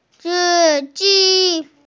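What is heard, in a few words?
"si1ji1", Mandarin Chinese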